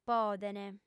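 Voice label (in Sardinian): "podent", female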